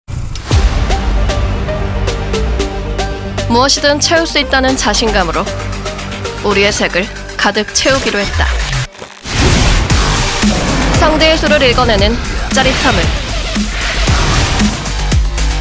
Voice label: 강력한 20대; Blade Soul 2

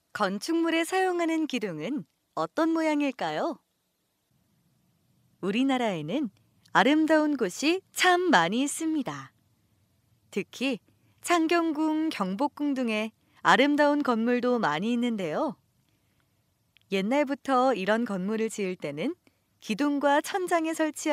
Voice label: 내레이션 교재 따뜻한 교육

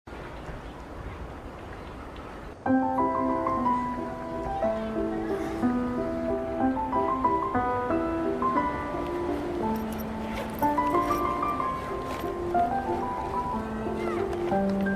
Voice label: 광고 여고생편; 허그미; Hug Me

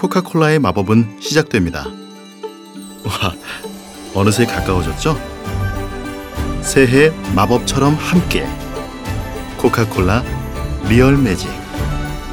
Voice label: 다정한 아빠 느낌; 코카콜라

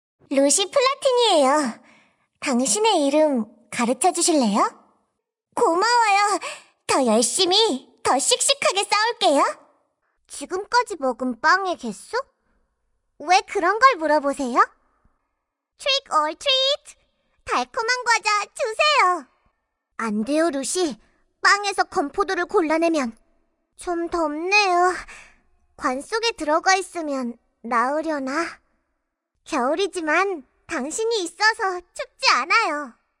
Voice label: 귀엽지만 어두운 면이 있는 아이 밝은 10대; 클로저스; 루시 플라티니

